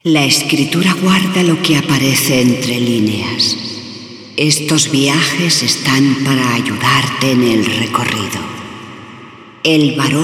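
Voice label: Speech spanish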